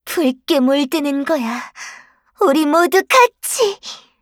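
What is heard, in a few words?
kill Player, Voice Line, Korean; Eternal Return; Garnet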